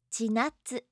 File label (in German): "chinatsu", japanische maedchennamen